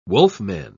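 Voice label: "wolfman", British English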